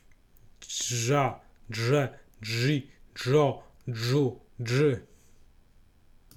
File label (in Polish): "dż", fonetyka